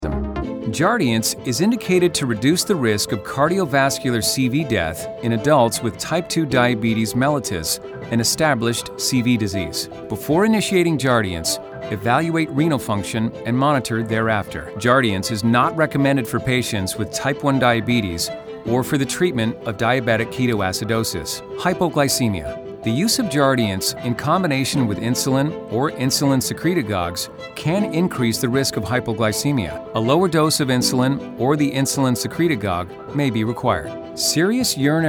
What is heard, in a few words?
Medical Narration; Jardience